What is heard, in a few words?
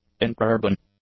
"intra-urban", english; adjectives